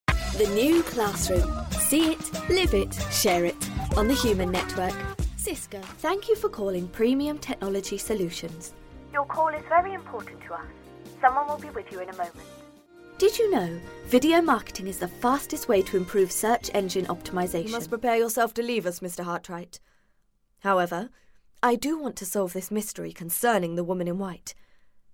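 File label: British English, Commercial; GoLocalise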